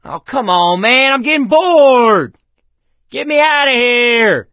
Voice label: call for rescue, survivor voice